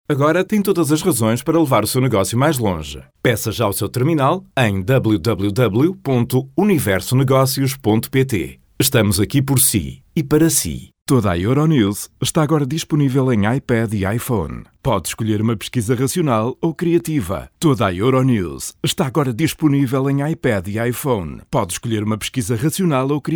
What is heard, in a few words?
male, portuguese voices